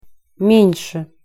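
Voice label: "men se", Russian pronunciation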